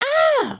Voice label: talk kind; emotes